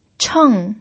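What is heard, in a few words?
"cheng" fourth tone, Mandarin Chinese pinyin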